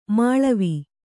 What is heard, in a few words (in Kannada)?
"ಮೇಳಾವ", Kannada